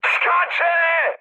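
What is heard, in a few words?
bailout, mood high, Polish speech; War Thunder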